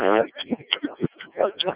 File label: decoded speech, 7177KHz